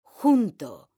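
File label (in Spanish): "junto", Spanish, female; mekanta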